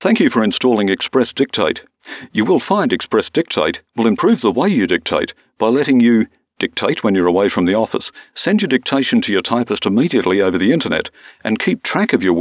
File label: 11k 16 bit pcm